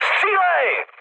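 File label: attack position, Czech, mood high; speech; War Thunder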